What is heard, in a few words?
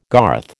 "garth", American